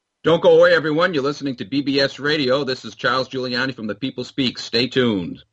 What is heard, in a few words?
bbs radio promo